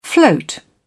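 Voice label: "float", British English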